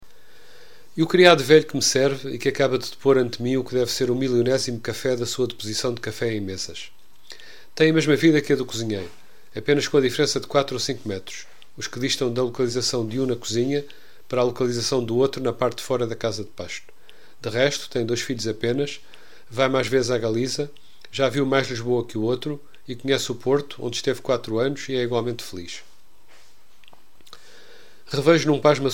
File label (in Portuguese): monotonia voz; F Pessoa; Desassossego